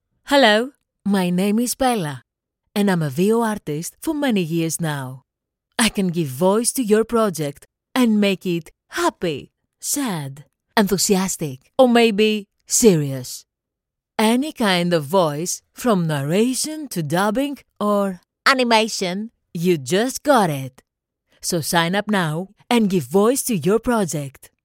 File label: english commercial